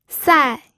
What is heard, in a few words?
"sai4", pinyin